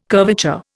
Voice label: "curvature", Indian